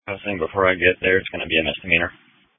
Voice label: Police Dispatch